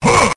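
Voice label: "huh", vocal sample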